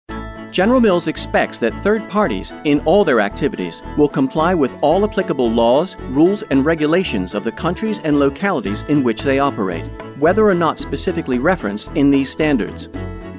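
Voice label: mid Atlantic e-learning, Friendly Mid Atlantic Male Voiceover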